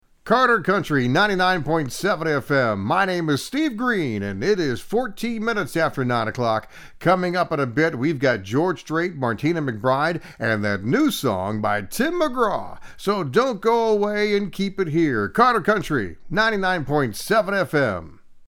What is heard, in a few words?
Radio Treatment